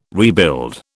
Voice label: "rebuild", British